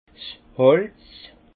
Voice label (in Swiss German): "holz", alsace; vin; bois